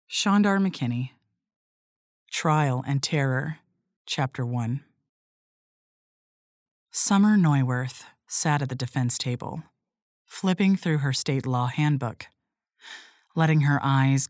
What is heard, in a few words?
Narration